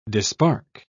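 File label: "dispark", English, British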